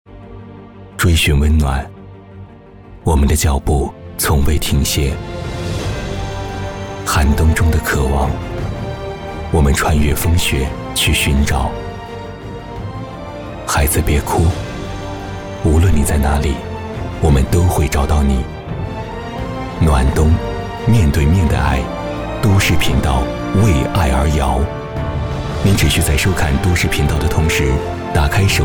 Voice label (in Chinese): 广告 片头片花 温暖; 暖冬; 河南卫视